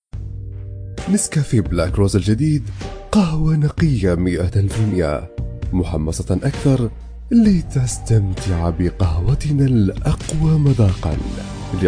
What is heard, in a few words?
Arabic Voice Over